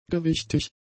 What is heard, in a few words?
"gewichtig", German; adjectives